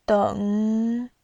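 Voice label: "deng", Mandarin Chinese, third tone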